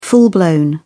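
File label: "full-blown", British English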